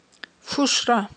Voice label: "фушра", Aghul